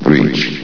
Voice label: "breach", vox; Source Engine; bshift

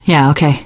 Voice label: yes, teengirl; voice; source engine; left 4 dead 2; survivor

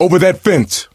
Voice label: misc directional, coach, survivor voice

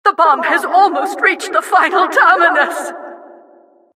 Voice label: announcer cart attacker final warning

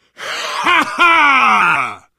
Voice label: taunt, biker

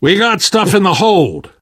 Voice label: on big ship, voice; Left 4 Dead 2; Source Engine; video games; namvet

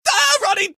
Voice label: scared; scout; source engine; tf

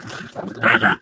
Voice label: impatient; Portal 2; Source Engine; video games; ballbot

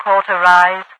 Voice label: cauterize, overwatch radio voice; Source Engine; video game; npc

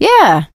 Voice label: positive noise; source engine; left 4 dead 2; survivor; producer

